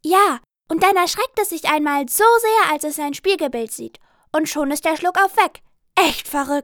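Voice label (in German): Mädchen; Was ist Was - Die Zeit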